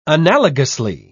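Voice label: "analogously", British English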